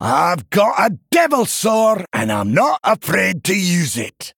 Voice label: Male Dwarf Bark; Brann Bronzebeard